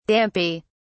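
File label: "dampy", British English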